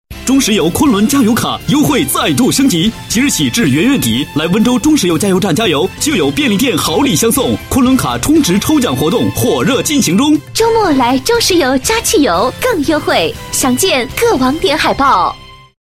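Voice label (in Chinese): 广告_促销_轻快; 中石油昆仑加油卡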